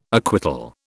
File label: "acquittal", British